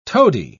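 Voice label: "tody", British English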